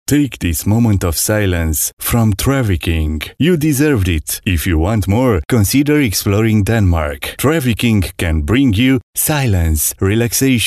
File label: male romanian voice over talent english